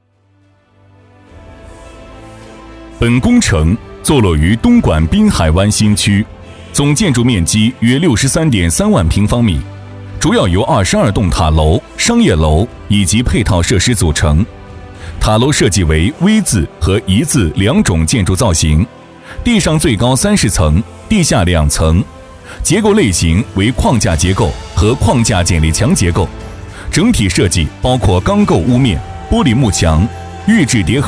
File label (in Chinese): 男 工程汇报; OPPO滨海湾高级人才房项目工程